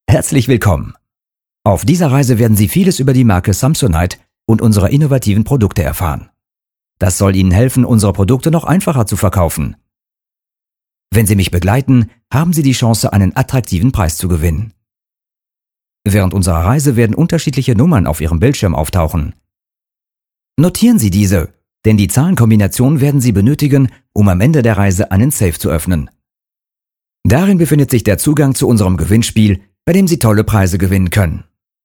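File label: DE M elearning, German, male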